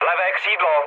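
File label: left wing, Czech, mood low; speech; War Thunder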